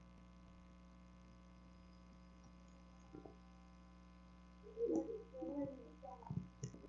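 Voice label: "hold", spoken word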